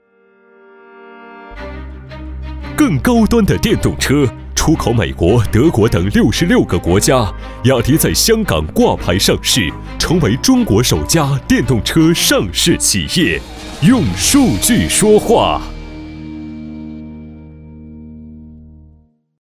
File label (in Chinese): 广告, 激情大气, 电动车